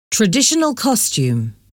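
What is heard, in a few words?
"traditional costume", English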